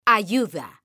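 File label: "ayuda", Spanish, female; mekanta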